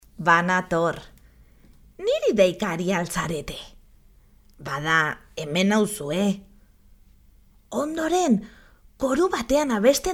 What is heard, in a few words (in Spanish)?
locutora vasca niño, voz de niño; Grabación de la cuña de radio contra la violencia sexual